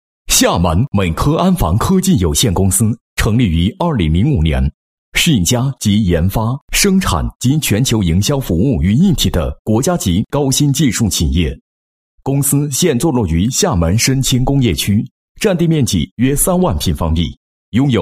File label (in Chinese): 男 公司企业 公司简介; 美科安防科技